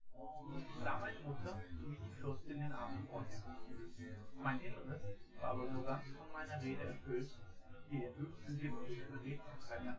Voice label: male synth conv 5cm; SASSEC07